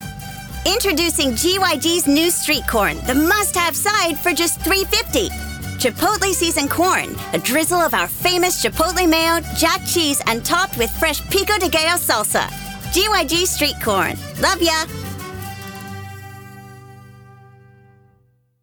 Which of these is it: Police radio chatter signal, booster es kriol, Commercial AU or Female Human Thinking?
Commercial AU